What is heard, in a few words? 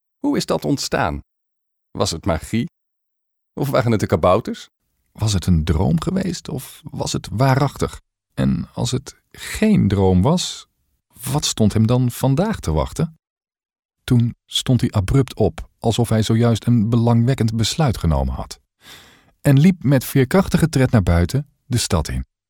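Dutch, Netherlands, male; dutch voice over talent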